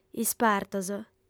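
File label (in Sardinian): "ispartos", Sardinian, female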